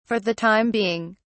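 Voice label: "for the time being", British English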